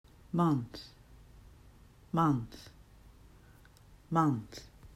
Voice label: "month", English

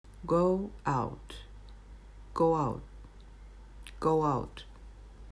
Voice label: "go out", English

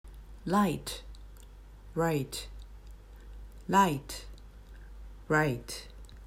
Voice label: "light right", English

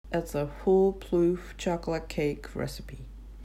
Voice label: "foolproof", English

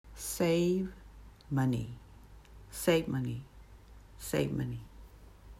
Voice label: "save money", English

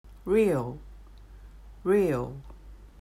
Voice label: "Real", English

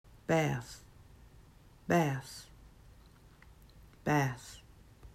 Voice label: "bath", English

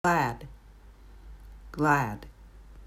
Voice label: "glad", English

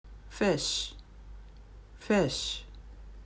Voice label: "fish", English

